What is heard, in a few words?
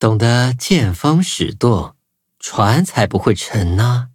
loading zh-hant; Cookie Run